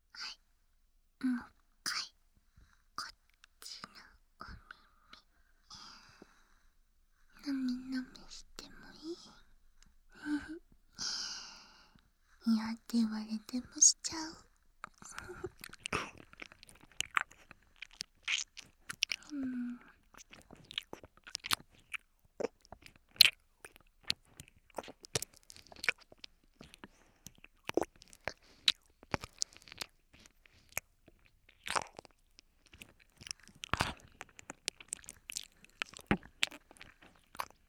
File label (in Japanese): ぺろぺろ 右, ASMR